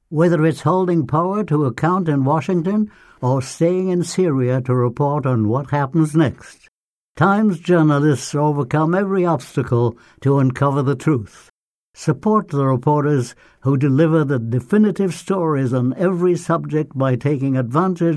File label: american english male Spot; NYT